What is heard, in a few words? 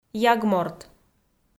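"ягморт", Komi, female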